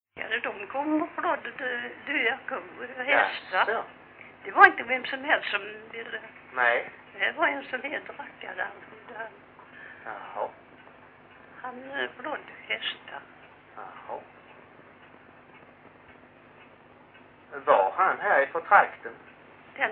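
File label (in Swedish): Grimeton Halland, Svenska sagor dialekter; Folkminnen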